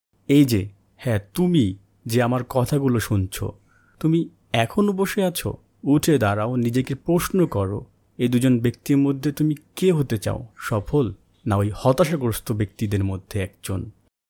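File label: Male, Bengali, India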